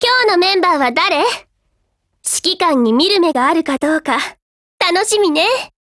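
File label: DIALOGUE, Japanese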